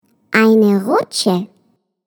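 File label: "slide", German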